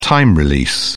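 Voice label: "time-release", British English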